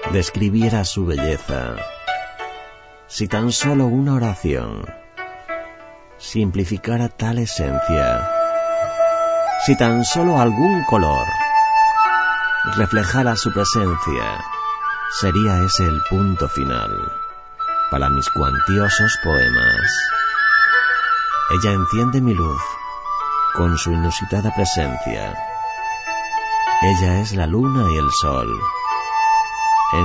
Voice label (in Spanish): mujer